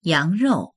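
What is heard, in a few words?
"yang2rou4", Chinese; yángròu; 羊肉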